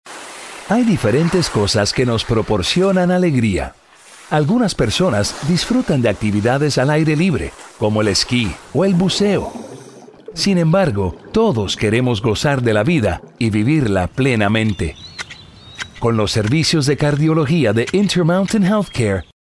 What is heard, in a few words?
storytellers friendly genuine